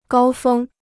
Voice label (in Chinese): "高峰", Chinese